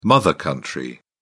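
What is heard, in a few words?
"mother country", British English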